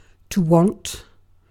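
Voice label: "want", British English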